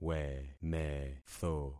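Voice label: "wemetho", concatenated syllables; Affixing experiment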